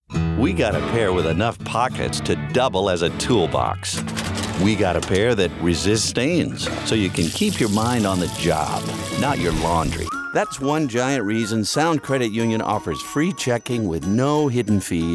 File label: Commercial